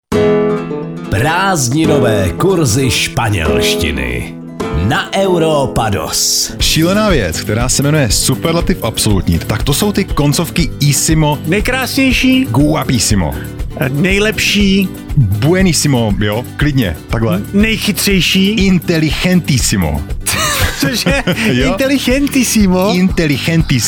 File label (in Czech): spanelstina spanelstina